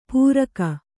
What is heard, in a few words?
"ಪೂರಕ", kannada